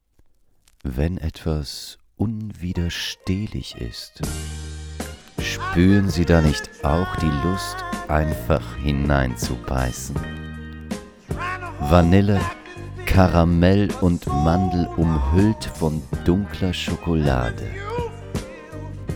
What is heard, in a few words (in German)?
Werbung; Viniette